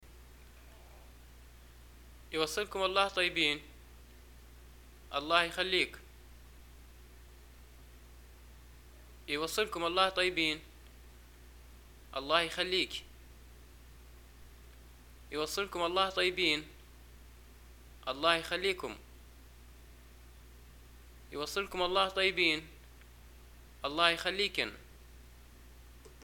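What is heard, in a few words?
Libyan greeting farewell, arabic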